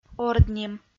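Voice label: "ордним", Komi, female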